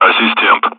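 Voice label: Speech, Russian, air traffic control; Callsign; Assistent; DCS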